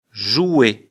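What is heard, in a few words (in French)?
"jouer", français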